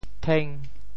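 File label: "theng5", Teochew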